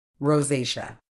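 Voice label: "rosacea", medical English, British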